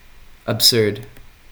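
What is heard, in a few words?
"absurd", American, male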